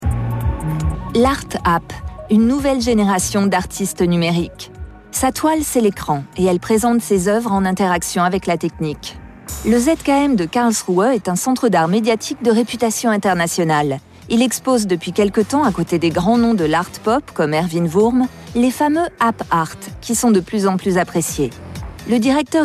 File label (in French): emission tele comm art app dynamique journalistique; arte METROPOLIS